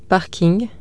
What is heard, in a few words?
parking lot, French